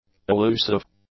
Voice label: "allusive", English; adjectives